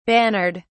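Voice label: "bannered", British English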